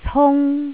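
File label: "cung1", Cantonese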